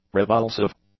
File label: "revulsive", English; adjectives